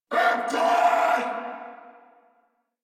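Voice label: Throw Grenade Corpus Venus Male, en; Warframe; Battle Barks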